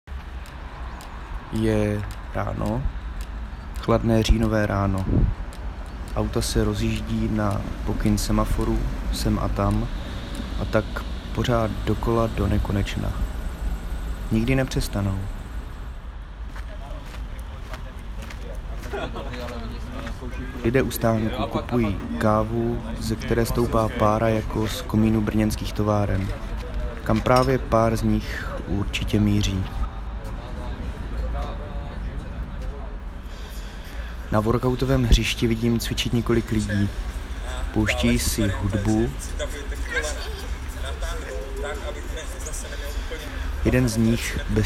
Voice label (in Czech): Narace